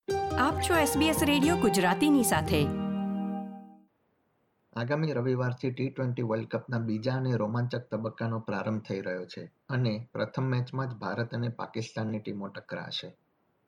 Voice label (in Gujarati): gujarati cricket india pak